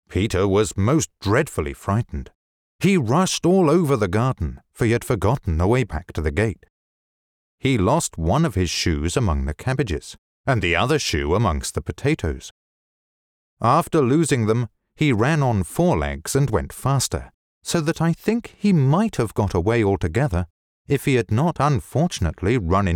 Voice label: English British audiobooks